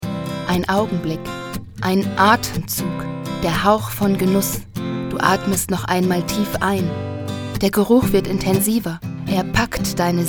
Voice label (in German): Werbung